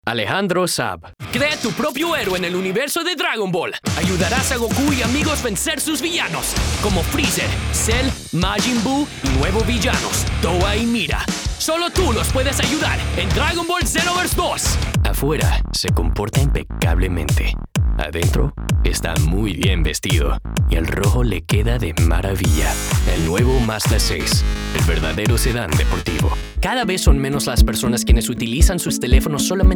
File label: languages men spanish